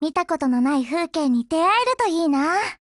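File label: OPERATION BEGIN Japanese, Voice; GF Alarm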